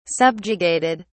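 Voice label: "subjugated", British English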